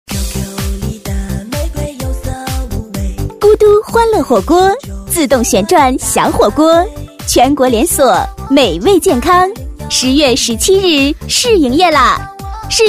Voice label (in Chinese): 女, 促销, 国语女声, 年轻; 火锅